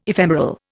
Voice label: "ephemeral", Indian